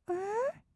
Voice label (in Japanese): "んー？", kawaii voice; ASMR; 色々なワンショット